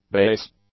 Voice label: "base", English; adjectives

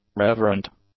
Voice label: "reverent", English; adjectives

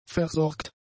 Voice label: "versorgt", German; adjectives

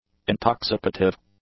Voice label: "intoxicative", english; adjectives

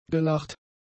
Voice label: "gelacht", German; adjectives

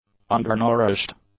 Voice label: "undernourished", English; adjectives

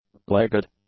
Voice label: "legged", english; adjectives

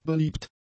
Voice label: "beliebt", German; adjectives

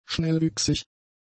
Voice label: "schnellwüchsig", German; adjectives

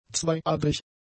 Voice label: "zweiadrig", German; adjectives

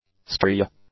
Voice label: "stria", english; nouns

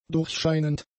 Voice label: "durchscheinend", german; adjectives

